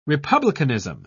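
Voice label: "republicanism", British English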